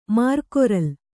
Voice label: "ಮರಗೊರಲೆ", Kannada